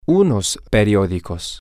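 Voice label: some newspapers, Spanish, male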